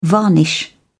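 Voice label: "varnish", British English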